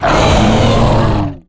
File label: anger, strogg; Quake 4 Alpha; strogg tik